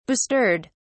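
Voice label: "bestirred", British English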